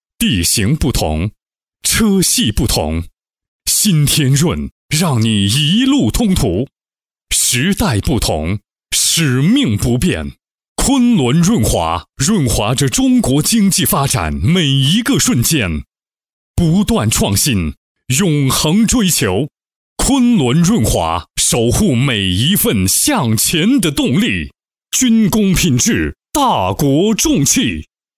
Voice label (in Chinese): 国语男; 昆仑润滑